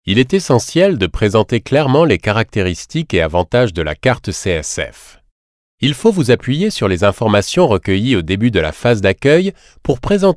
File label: fr elearning